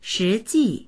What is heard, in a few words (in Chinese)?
"shi2ji4", voices; 实际; 実際の; dictionary